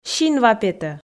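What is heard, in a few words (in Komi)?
"синва петӧ", Komi, female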